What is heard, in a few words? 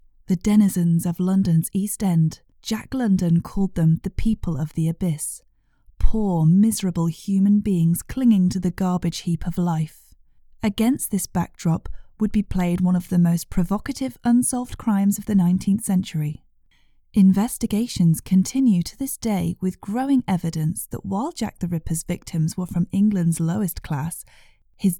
english british audiobooks